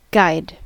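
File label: "guide", American English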